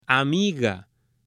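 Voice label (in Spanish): "amiga", Spanish, female; AppLenguaje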